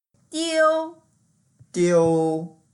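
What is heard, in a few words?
"diu1", Mandarin Chinese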